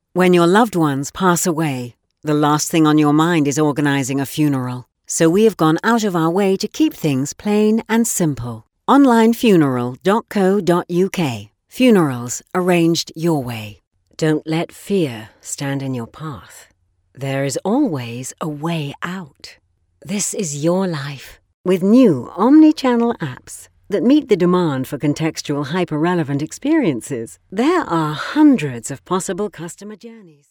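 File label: British English, female, voice over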